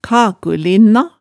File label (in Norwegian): "kakulinna", hemsedalsmaalet tale